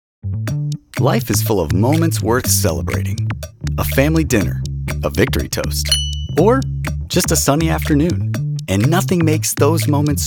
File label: COMMERCIAL, male voices